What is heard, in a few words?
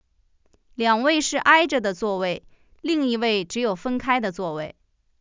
chinese read